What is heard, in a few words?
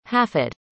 "hafid", arabic; indonesian boy names